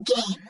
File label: game, friendly female robot voice; dry; Leevaudio